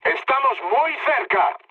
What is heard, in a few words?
near waypoint, mood high, speech; War Thunder